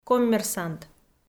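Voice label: "коммерсант" Komi, female; audio dict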